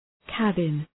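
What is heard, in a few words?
"cabin", English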